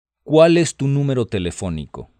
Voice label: Spanish, hotline